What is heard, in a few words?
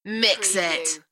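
British female Mix it; beanstalk audio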